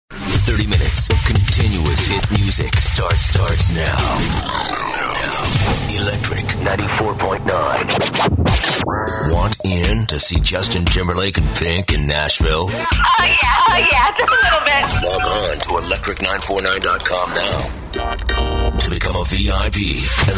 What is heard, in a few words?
radio male chr; radio imaging